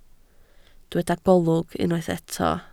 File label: "golwg", Welsh